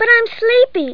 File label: sleepy